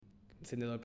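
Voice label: "Encendedor", Spanish, male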